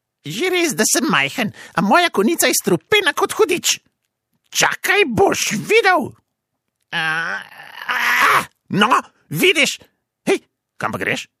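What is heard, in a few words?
slovenian male character; voice demos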